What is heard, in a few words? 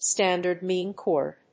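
"Standard Mean Chord", English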